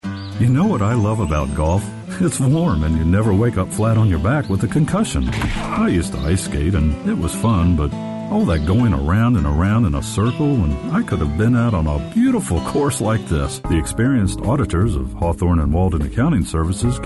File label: male US retail